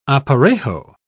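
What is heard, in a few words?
"aparejo", British English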